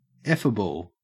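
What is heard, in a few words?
"effable", Australian English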